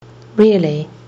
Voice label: "really", kiwi english